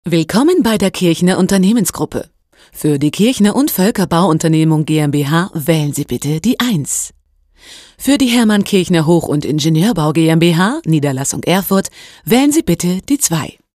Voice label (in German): Telefonansage